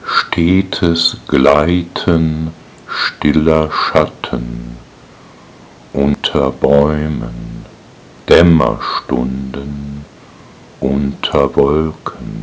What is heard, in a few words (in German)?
Die weibliche Stimme